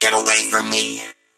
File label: hurt